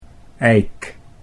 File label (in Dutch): "eik", Dutch